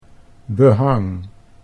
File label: "behang", Dutch